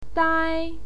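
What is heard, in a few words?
"dai", Mandarin Chinese, first tone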